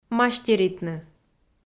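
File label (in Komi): "мастеритны", Komi-Zyrian, female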